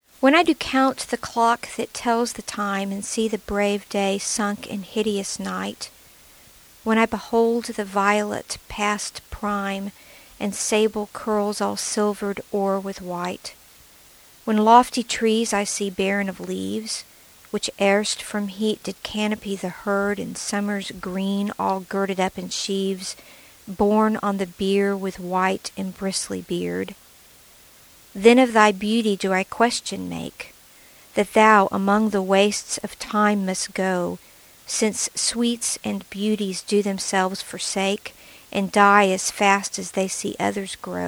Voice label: Sonnet Reading; English